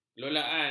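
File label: "kelolaan", Malay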